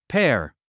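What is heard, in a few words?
"pear", English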